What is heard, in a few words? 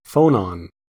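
"phonon", American English; pronunciations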